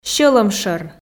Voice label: "сьӧлӧмшӧр", Komi